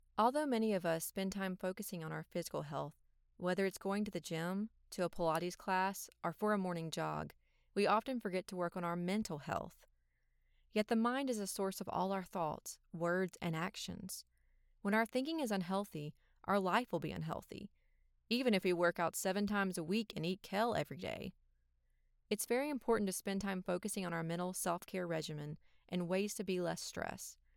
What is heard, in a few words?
VO Less Stressed Nonfiction